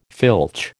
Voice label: "filch", American